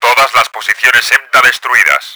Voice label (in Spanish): flak, Spanish; Battle Engine Aquila; XBOX